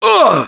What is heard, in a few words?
Hurt Major, russian; Gambler